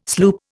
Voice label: "sloop", Indian

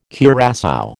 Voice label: "curassow", American

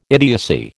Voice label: "idiocy", American

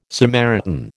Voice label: "Samaritan", American

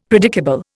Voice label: "predicable", Indian; Dictionary

